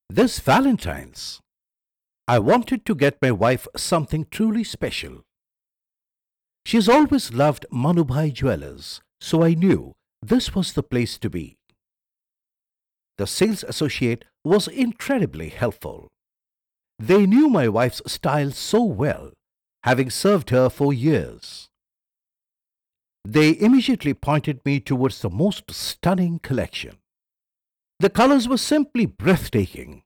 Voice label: Advt English; Manubhai